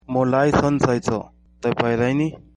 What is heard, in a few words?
fine nepali